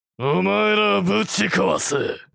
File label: I will crush you all anime villain character